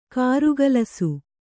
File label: "ಕಾರಗಲಿಸು", Kannada